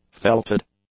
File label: "felted", english; adjectives